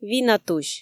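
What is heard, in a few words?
"винатусь", Komi, female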